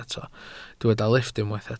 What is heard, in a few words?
"lifft", Welsh